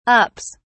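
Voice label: "ups", British English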